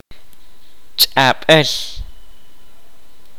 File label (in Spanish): "idioma", Kaqchikel